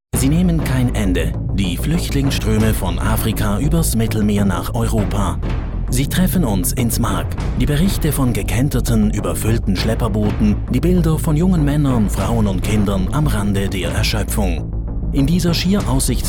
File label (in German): reportage; Engage – Reportage Teaser; fluechtlinge